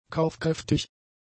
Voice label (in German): "kaufkräftig", German; adjectives